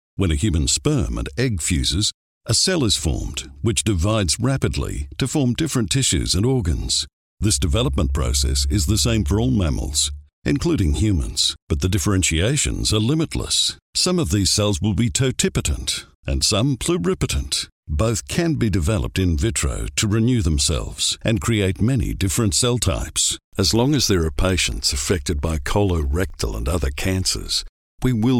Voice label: english australian medical narration